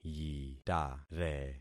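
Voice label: "yitare", concatenated syllables; Affixing experiment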